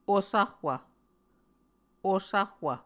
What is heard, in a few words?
"osahwv", Muscogee